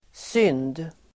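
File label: "synd", Swedish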